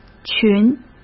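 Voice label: "群", Mandarin Chinese; chinese-flashcards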